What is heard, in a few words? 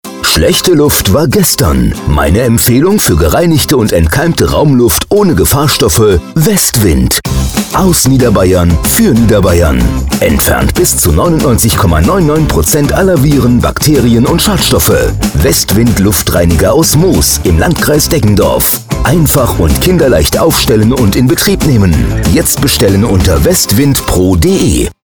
Radio Spot